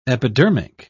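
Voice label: "epidermic", British English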